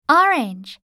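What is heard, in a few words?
"orange", English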